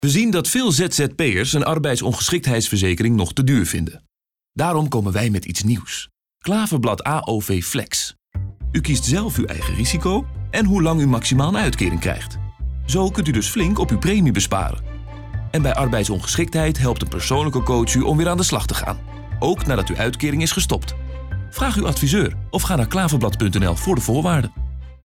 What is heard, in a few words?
radiocommercial